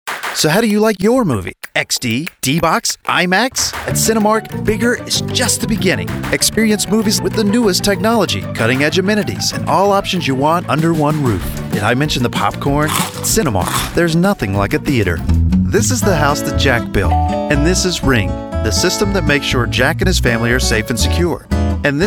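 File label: Commercial, male; Demo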